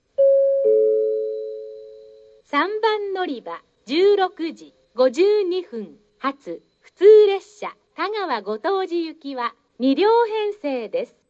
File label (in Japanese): 案内放送（普通・田川後藤寺）; 小倉駅; 3 日田彦山線／田川後藤寺・添田 方面 日豊本線／行橋・中津・大分 方面 山陽本線／門司・下関 方面